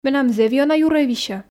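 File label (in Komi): менам зэв ёна юрӧй висьӧ, Komi, female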